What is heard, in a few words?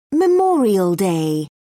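"memorial day", British English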